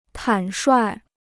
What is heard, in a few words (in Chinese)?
"坦率", Chinese